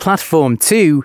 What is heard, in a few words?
announcements, male